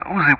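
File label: "Uzi", English; Ka-50; Player Callsign; DCS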